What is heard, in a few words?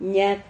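"ñad", Spanish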